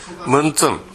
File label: "muntun", minamiyamagata